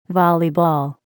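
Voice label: "volleyball", English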